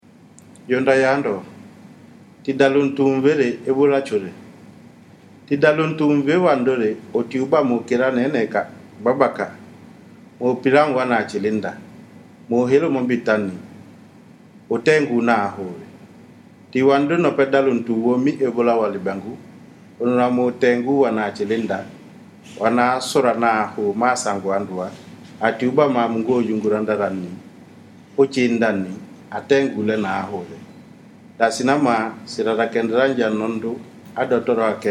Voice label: kissi; ebola; radio-spots; vhf